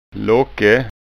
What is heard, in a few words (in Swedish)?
"locke", skanska ord